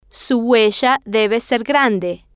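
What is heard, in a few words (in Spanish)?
su huella debe ser grande, spanish, female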